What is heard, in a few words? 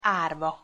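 "arva", Hungarian pronunciation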